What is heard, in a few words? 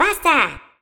faster, echo